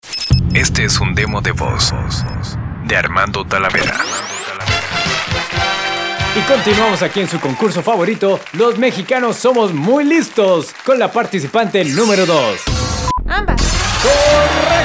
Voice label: Commercial Deep